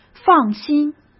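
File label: "放心", Mandarin Chinese; chinese flashcards; hsk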